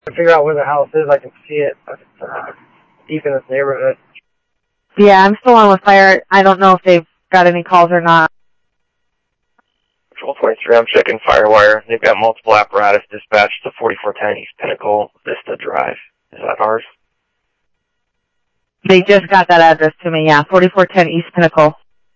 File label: Phoenix PD, scanner audio